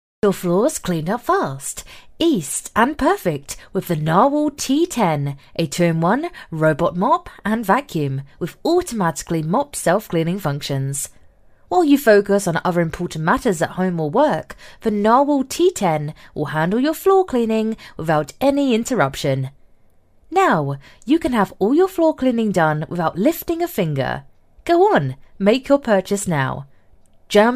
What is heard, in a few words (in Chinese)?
英式女声